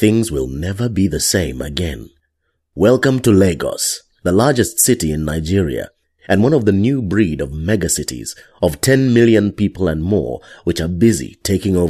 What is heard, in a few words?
Commercials, Deep tone. Warm, assured, with plenty of gravitas.